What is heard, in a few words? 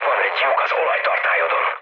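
Hungarian damaged oil mood low, speech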